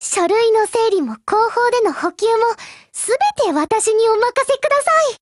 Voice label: OPERATION BEGIN JP